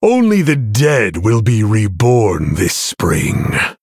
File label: Male Human HAPPY NOBLEGARDEN